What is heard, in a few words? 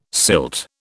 "silt", British